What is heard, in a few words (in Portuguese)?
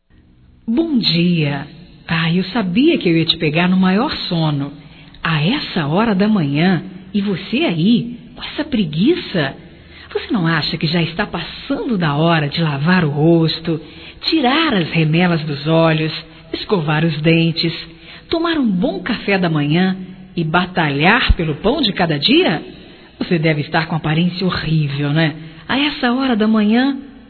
Bom Dia Gozação Feminino, Telemensagens, Só Voz; Telemensagens 2017